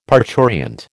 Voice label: "parturient", American